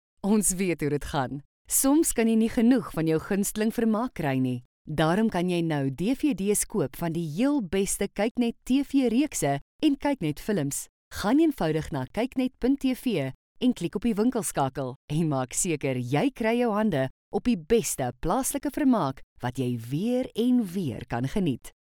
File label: Afrikaans F Corporate